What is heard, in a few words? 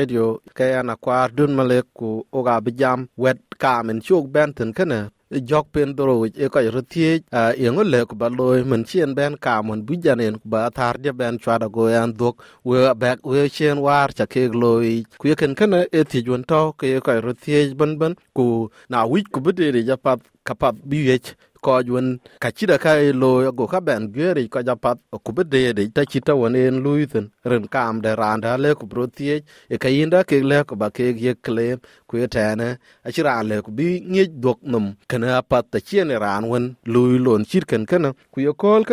dinka, podcast; Yeŋo leu ba thiëc bi dhuök ciën ku bi cii dhuk tɛn yïïn tɛ cuɛ̈t yin weu ke ci juɛ̈ɛ̈r tɛnë yiin; SBS Dinka